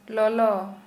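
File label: Santali female hot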